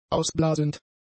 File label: "ausblasend", German; adjectives